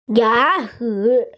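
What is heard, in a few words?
"ya2chi3", Mandarin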